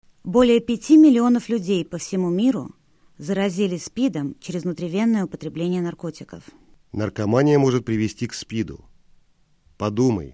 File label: spot russian